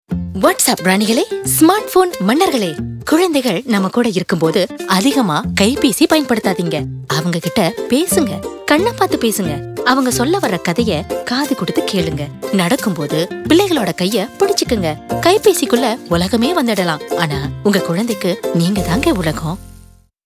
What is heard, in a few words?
HANDPHONE; 20S; TAM